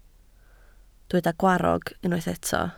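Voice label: "gwarrog" Welsh, spoken word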